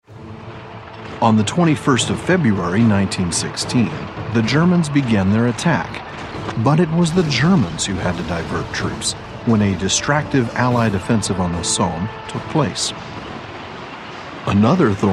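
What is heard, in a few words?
American English documentary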